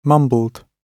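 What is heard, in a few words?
"mumbled", British English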